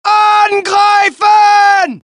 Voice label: German; mohaa; dialogue

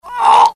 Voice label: death, UK; mohaa; dialogue

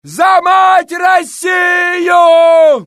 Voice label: Russian; mohaa; dialogue

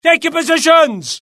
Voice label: alert, UK; mohaa; dialogue

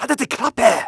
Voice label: curious; mohaa; dialogue

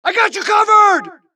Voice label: supress, dialogue Allies; mohaa; Mission 2

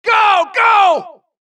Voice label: supress, dialogue Allies; mohaa; Mission 2

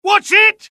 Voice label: alert, dialogue UK; mohaa

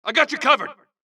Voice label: supress, dialogue Allies; mohaa; Mission 2

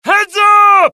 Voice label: alert, dialogue generic US; mohaa

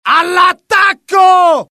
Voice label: alert, dialogue IT; mohaa; attack

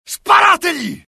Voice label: alert, dialogue IT; mohaa; attack

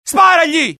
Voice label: alert, dialogue IT; mohaa; attack

